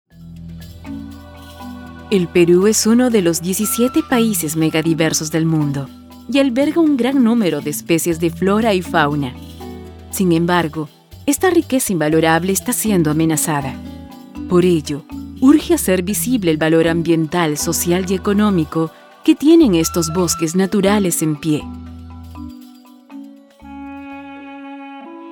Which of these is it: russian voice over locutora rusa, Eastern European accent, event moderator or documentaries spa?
documentaries spa